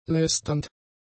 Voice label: "lästernd", German; adjectives